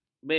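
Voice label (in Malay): "bega", Malay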